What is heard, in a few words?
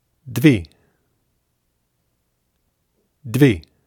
"dvi", sanskrit; Digit